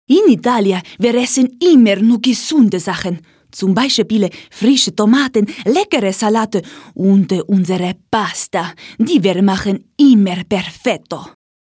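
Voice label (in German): italienischer Akzent; dialekt; Dialekte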